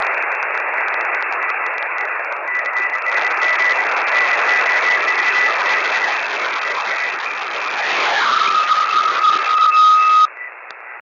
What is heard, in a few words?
80m LSB